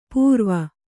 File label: "ಪೂರ್ವ", Kannada